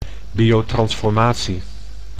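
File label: "biotransformatie", Dutch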